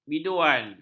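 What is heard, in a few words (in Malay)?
"biduan", Malay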